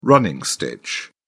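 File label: "running stitch", British English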